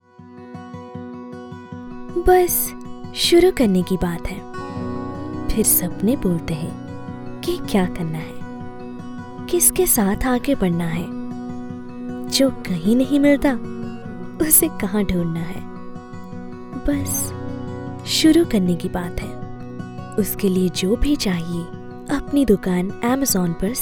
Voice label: Hindi TVC Slow Calm

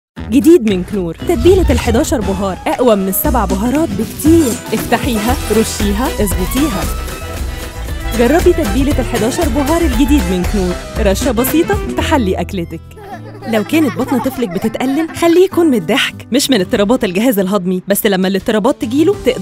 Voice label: Egyptian Arabic Commercial

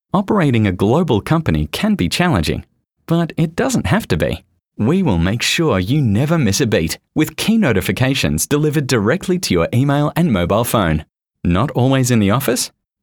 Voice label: Explainer

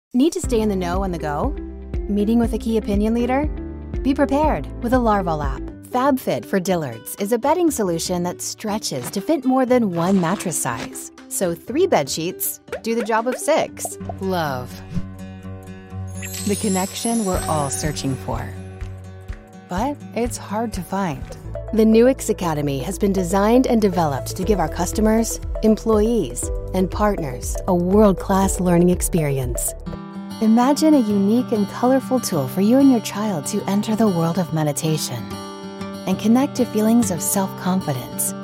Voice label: Explainer Video Voice

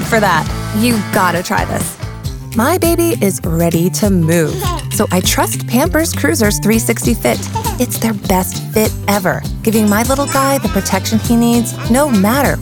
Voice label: Commercial

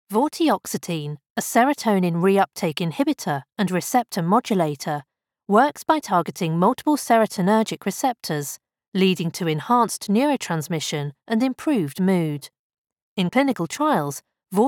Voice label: medical elearning british RP

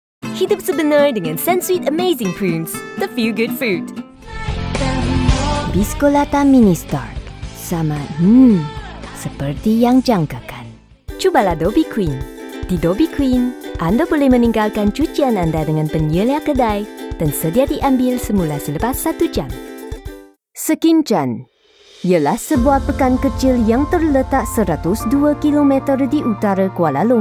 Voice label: Voice Reel Malay

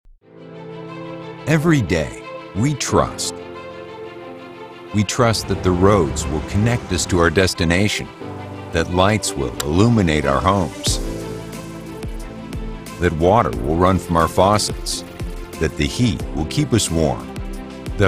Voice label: Narration

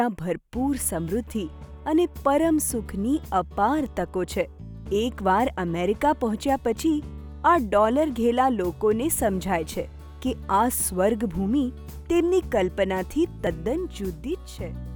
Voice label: Gujarati Narration

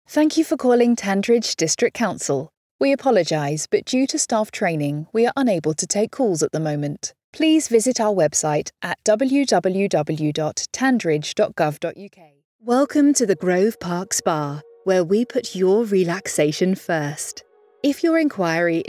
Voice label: voiceover ivr

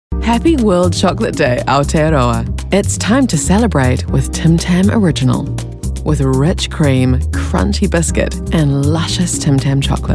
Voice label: NZ Commercial

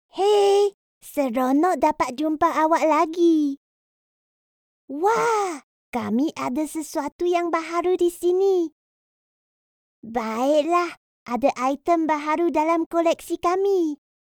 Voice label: Kids boy Malay sample

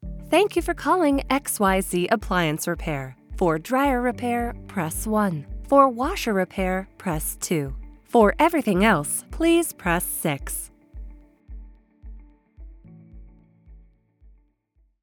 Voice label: IVR phone messaging; Appliance Repair Company

